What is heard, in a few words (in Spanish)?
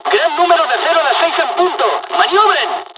dialogs spanish; usa; War Thunder